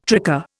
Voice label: "tricar", Indian

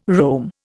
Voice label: "roam", Indian

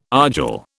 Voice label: "argil", British

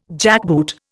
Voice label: "jackboot", Indian

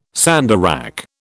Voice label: "sandarac", British

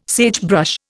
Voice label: "sagebrush", Indian

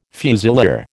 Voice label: "fusilier", American

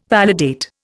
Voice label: "validate", Indian

